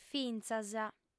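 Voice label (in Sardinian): "fintza", female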